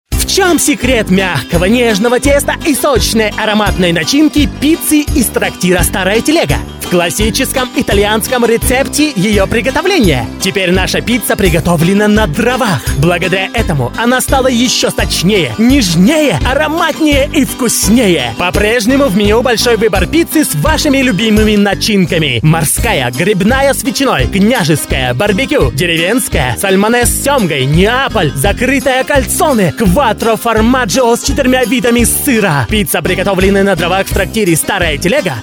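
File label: Italian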